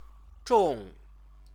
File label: "zhong", Chinese